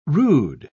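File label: "rood", English, British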